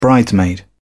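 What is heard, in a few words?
"bridesmaid", English, British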